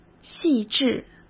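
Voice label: "细致", Mandarin Chinese; hsk; chinese-flashcards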